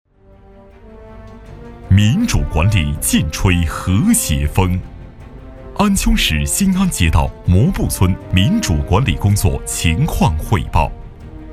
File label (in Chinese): 专题 汇报 街道汇报片 磁性, 男国